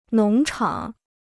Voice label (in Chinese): "农场", Chinese